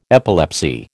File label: "epilepsy", American